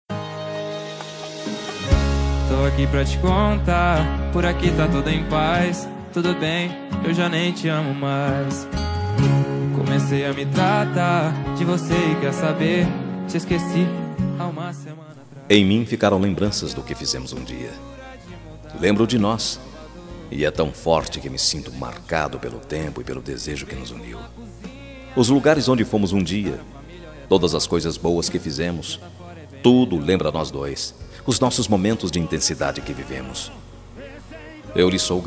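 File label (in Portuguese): SAUDADES PARA EX male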